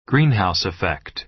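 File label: "greenhouse effect", American English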